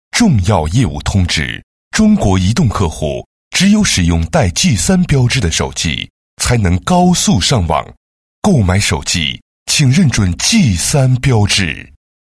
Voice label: 男 广告 移动通知 手机, 国语男声配音

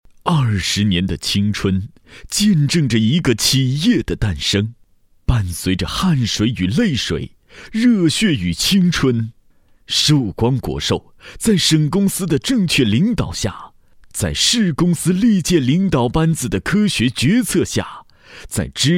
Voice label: 男 企业宣传片 宣传片; 寿光国寿

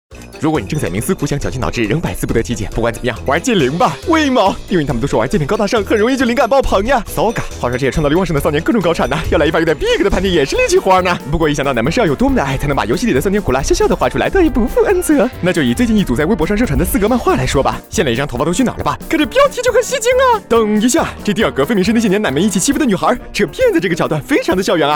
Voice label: 男 日和风格

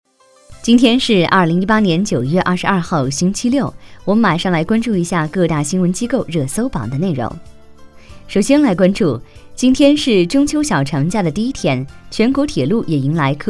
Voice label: 新闻

